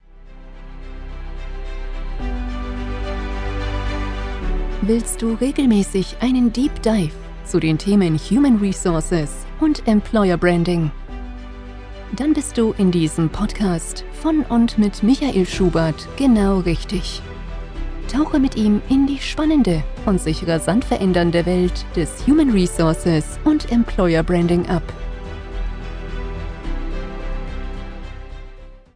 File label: GERMAN